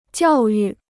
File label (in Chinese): "教育", Chinese